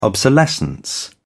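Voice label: "obsolescence", British English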